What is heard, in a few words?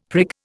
"prig", Indian; Dictionary